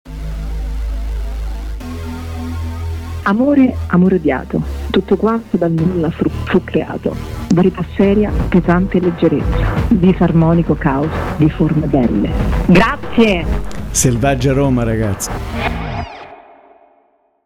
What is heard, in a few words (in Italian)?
jingle saluto; SELVAGGIA ROMA; i saluti dei nostri ospiti